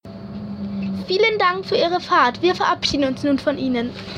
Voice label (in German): Durchsage